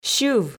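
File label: "сьыв", Komi, female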